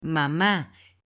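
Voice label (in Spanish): "mama", Spanish, male; AppLenguaje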